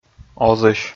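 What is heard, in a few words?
"озысь", Komi, male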